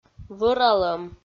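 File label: "вӧралӧм", Komi, female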